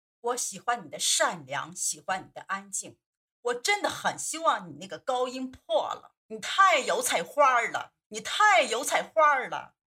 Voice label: 女25-模仿, 女

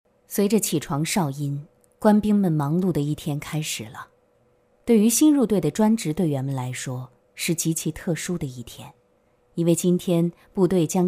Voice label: 女 专题 感觉微电影旁白; 焦点访谈

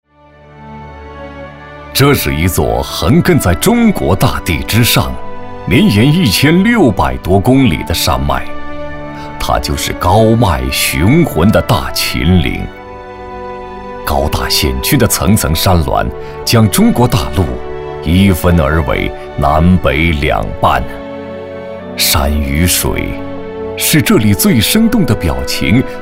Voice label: 男 专题 风光片; 大秦岭

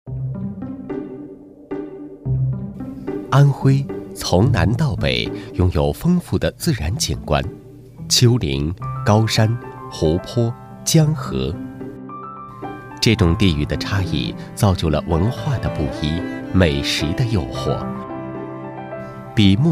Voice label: 男 专题 城市形象宣传片; 安徽; 安徽城市形象宣传片

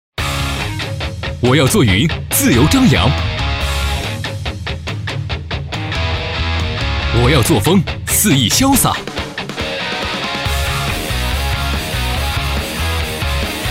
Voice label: 男, 广告, 青春广告

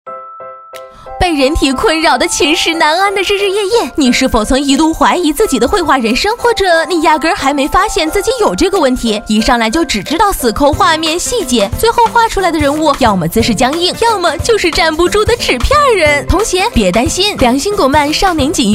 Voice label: 女-轻松欢快; 绘画; 飞碟说

